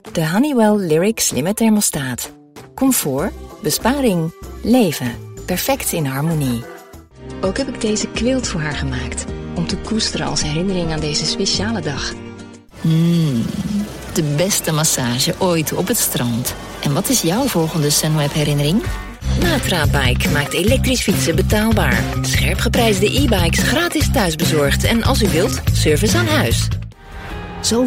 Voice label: Dutch Commercials

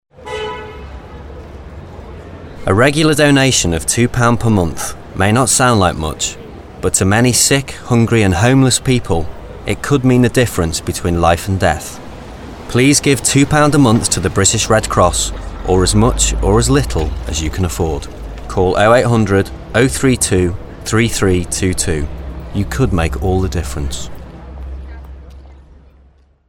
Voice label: Red Cross Appeal

